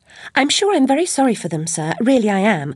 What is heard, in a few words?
sorry, voice commands; svencoop; Alice